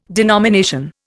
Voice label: "denomination", Indian

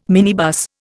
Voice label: "minibus", Indian

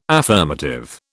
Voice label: "affirmative", British

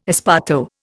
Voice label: "esparto", Indian

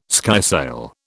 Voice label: "skysail", British

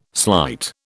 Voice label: "slight", British

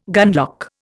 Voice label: "gunlock", Indian

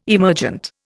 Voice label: "emergent", Indian

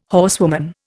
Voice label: "horsewoman", Indian

